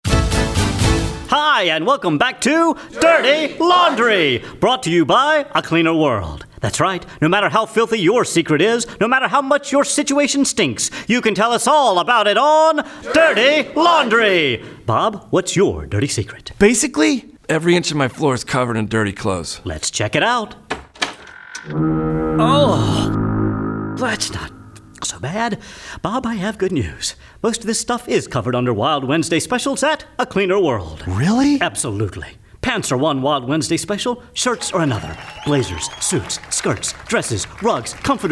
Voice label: Radio